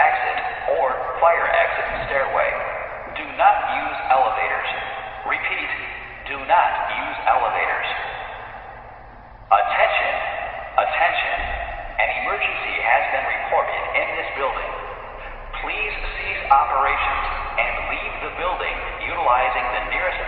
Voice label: stairway emergency alert loop, Transit Terminal Announcements